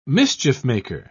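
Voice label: "mischief-maker", British English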